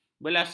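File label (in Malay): "belasah", Malay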